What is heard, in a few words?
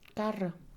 "carro", Mixe, Mujer; Moderno; INALI